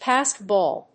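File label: "passed ball", English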